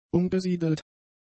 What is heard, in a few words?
"umgesiedelt", German; adjectives